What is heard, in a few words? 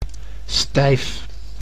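"stijf", Dutch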